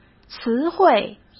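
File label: "词汇", Mandarin Chinese; hsk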